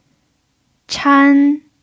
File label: "chan1", Chinese pinyin